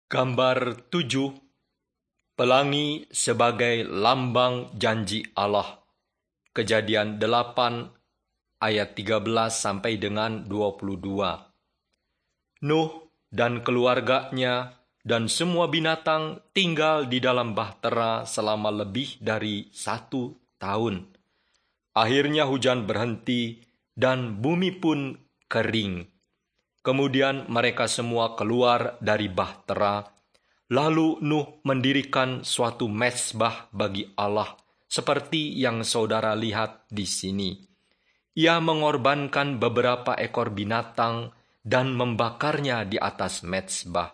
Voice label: bahasa indonesia; beginning with god

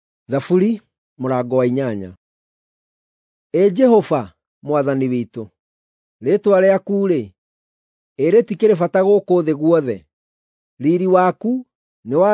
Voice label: psalms, gikuyu drama

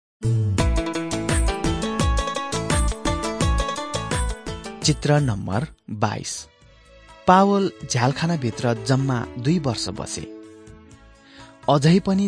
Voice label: acts of the holy spirit nepali

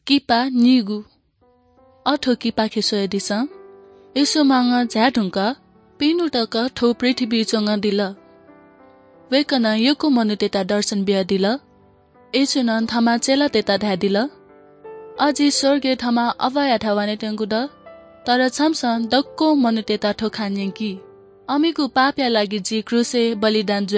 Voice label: good news newari bhaktapur

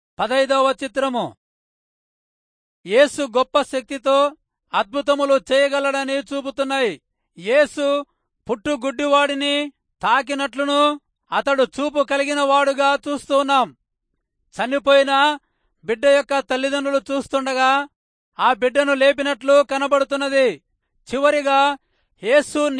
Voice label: telugu rayalseema; good news

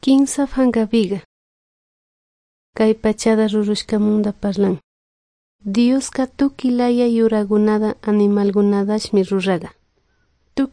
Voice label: good news quichua de salasaca

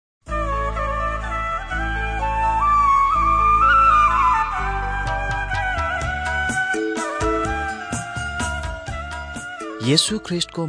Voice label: victory through god nepali

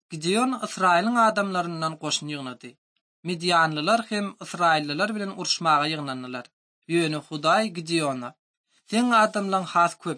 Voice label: turkmen, ot drama; institute for bible translation; servants of god

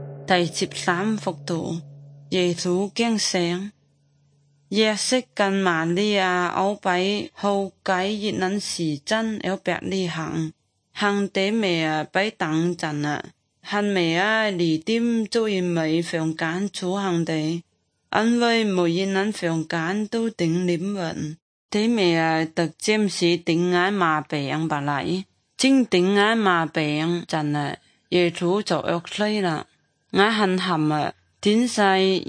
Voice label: good news zhuang guigang guiping

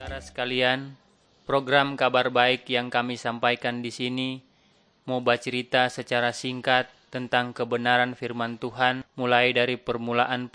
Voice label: malay manado; good news

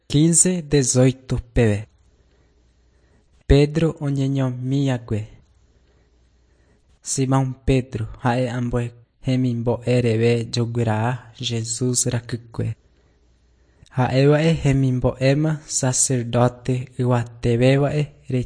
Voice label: john guarani mbya, bible

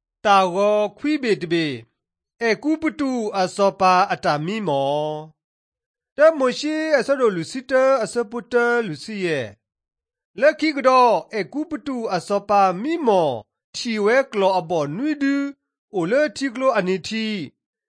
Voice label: drama, karen sgaw; mighty men of god; bible

